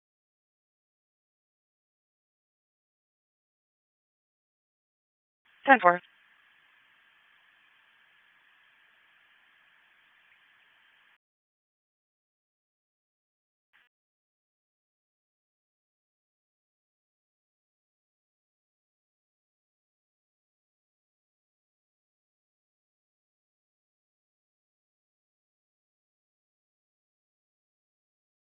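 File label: Raleigh Police Northeast District Dispatch, p25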